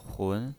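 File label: "hun", second tone, pinyin voice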